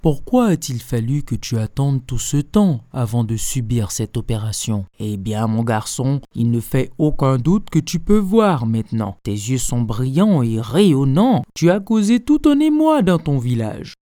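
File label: French Middle old age Man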